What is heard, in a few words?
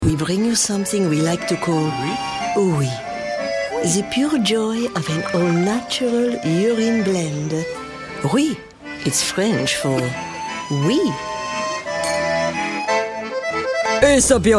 French Accent